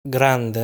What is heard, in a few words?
"Grande", Italian